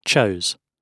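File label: "chose", British English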